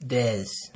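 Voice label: "dez", Nigerian Pidgin, male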